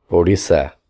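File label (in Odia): "ଓଡ଼ିଶା", Odia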